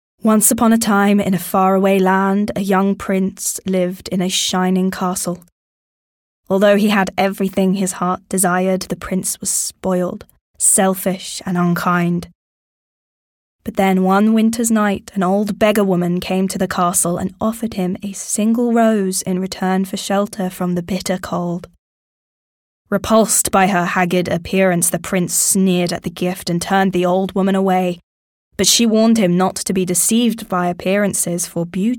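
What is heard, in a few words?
British English, Narration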